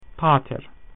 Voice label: "father", Latin